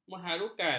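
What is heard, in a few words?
"mengharukan", Malay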